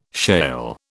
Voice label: "shale", British

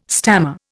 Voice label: "stammer", Indian

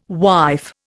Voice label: "wife", Indian

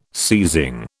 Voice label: "seizing", British

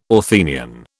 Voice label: "Athenian", British

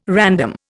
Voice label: "random", Indian

